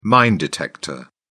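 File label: "mine detector", British English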